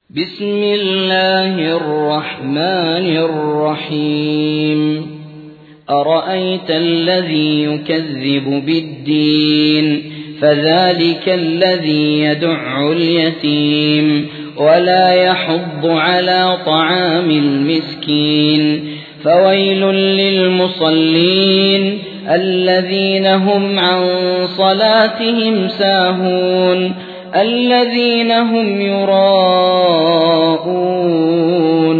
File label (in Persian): ترتیل; سوره ماعون